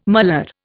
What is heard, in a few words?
"malar", Indian